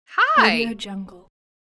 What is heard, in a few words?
female saying friendly hi